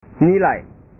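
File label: "nilai", Indonesian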